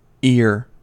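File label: "ear", English, US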